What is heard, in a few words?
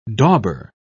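"dauber", English, British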